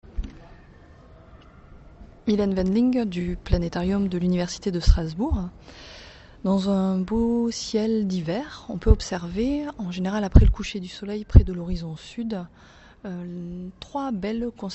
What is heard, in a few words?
French; WinterGame